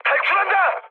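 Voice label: Korean bailout mood high, speech; War Thunder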